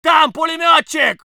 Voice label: voice sov infantry